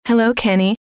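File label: hello, female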